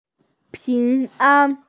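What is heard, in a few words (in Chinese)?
"ping2an1", Mandarin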